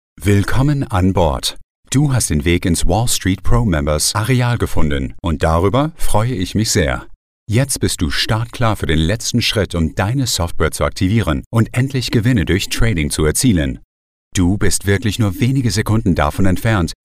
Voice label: male German

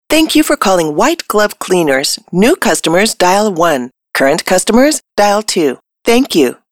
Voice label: Female English American

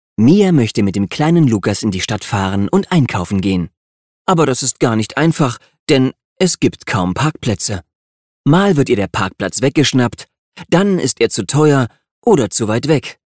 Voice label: male German

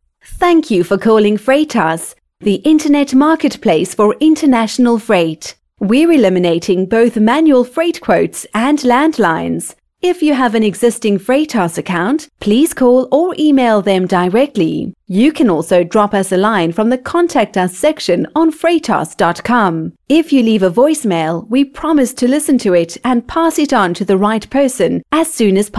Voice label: female English british

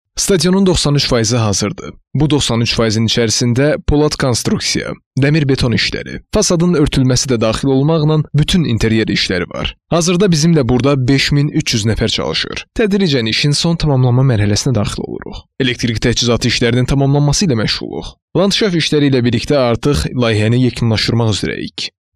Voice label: male, azerbaijan

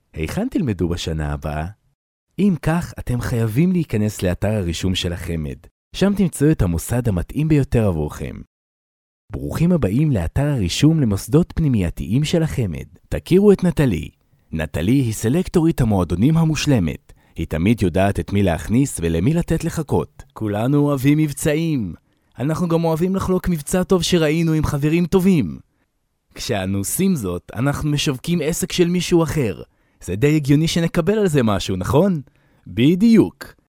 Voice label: male Hebrew

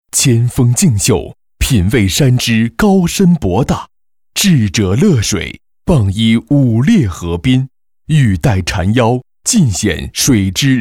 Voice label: male china

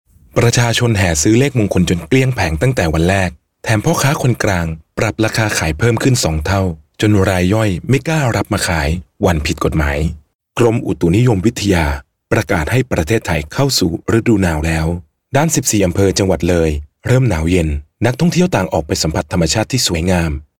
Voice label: male thai

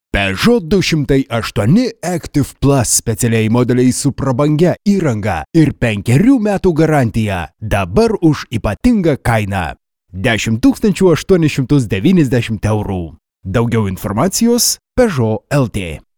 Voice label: female lithuania

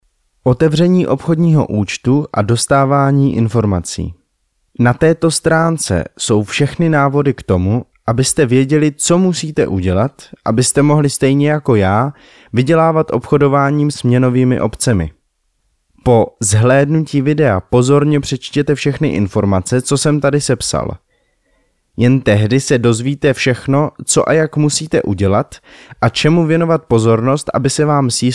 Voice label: male czech

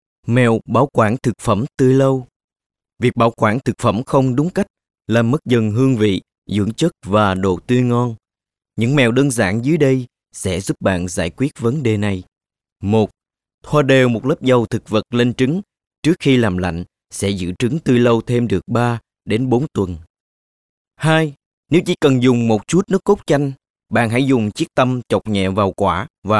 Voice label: male vietnamese

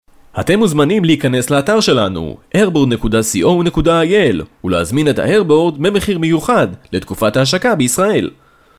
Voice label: male Hebrew

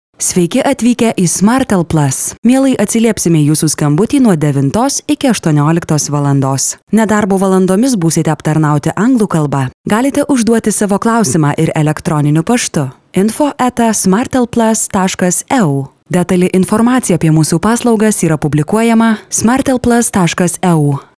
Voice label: female lithuania

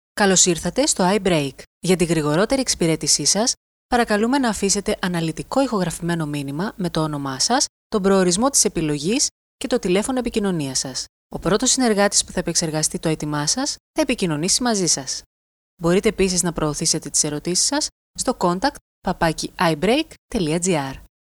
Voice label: female greek

